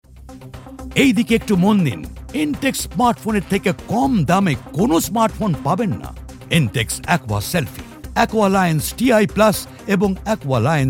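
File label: Bengali, General; GoLocalise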